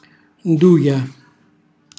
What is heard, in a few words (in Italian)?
"nduja", Calabrese; cucina calabrese; Glossario